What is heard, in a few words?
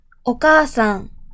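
"お母さん", Japanese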